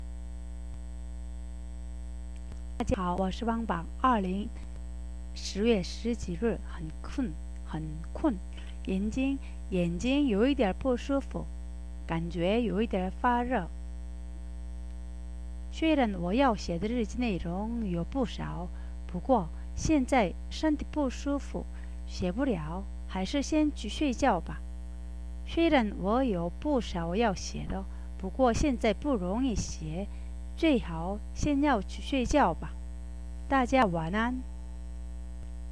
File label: chinese